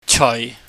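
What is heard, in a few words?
"cheui", Cantonese, low falling tone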